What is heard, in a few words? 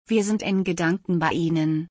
We remember you in German; تسلیت گفتن به آلمانی